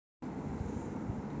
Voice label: voice message